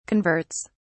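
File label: "converts", British English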